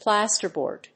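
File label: "plasterboard", English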